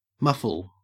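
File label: "muffle", Australian English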